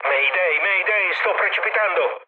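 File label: Italian aircraft destroyed mood low, speech; War Thunder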